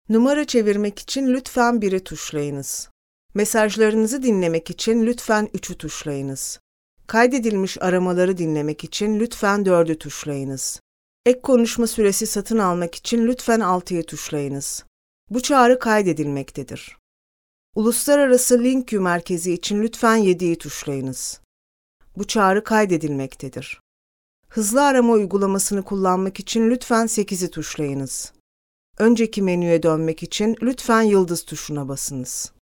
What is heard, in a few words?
Turkish; קריינות